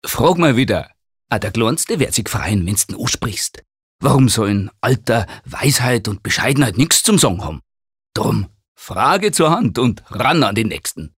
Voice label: Dialekt Bayerisch

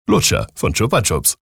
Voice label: Werbung Lebendig

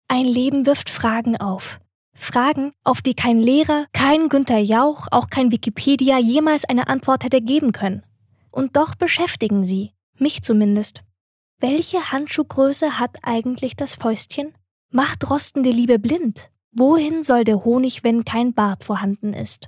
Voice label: Schauspiel Sanft

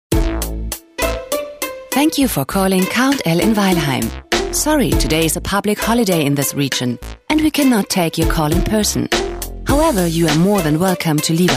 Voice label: Eng UK Kommentar Freundlich

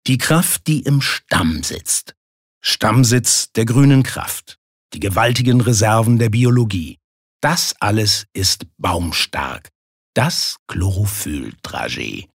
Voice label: Werbung Kraftvoll

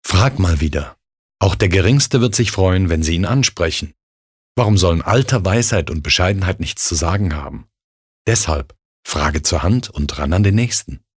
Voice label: Werbung Sanft

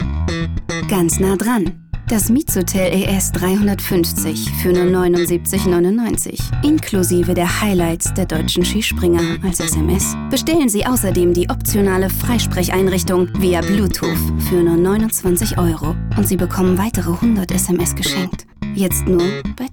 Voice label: Sinnlich

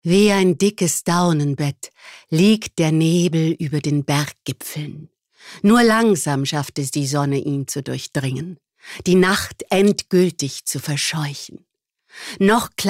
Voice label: Schauspiel Variabel

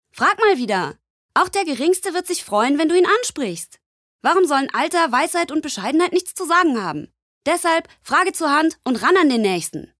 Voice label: Werbung Frech

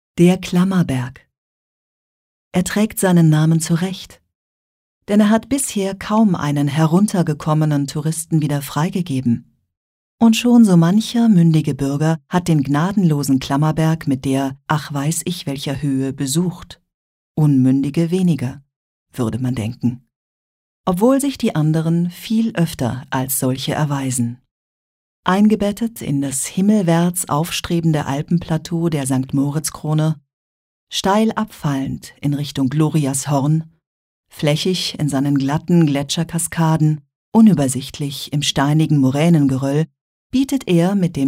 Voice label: Kommentar Neutral

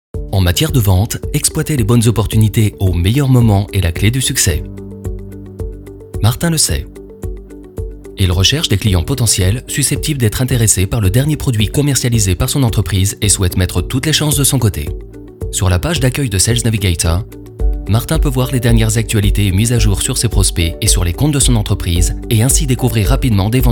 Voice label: FR Neutral; Fremdtake

